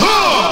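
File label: "huh", vocal sample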